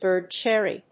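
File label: "bird cherry", English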